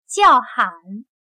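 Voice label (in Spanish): "叫喊", chino mandarin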